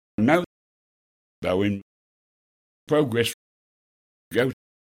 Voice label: gravesend goat, accents